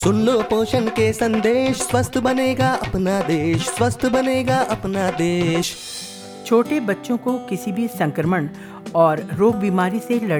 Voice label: Radio PSA on Nutrition-Breastfeeding and complimentary feeding; poshan ke sandesh; on nutrition for children